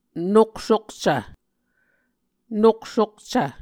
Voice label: "noksukcv", Muscogee

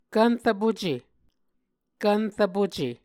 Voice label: "kvnrvpuce", Muscogee

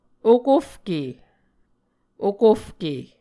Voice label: "okofke", Muscogee

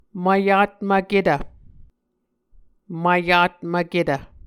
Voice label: "mvyatmvketv", Muscogee

